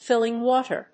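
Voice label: "filling water", English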